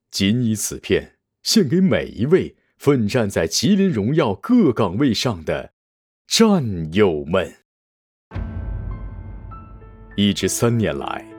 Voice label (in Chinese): 试音 男; 跨越梦想荣耀未来